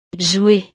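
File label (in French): "jouer", French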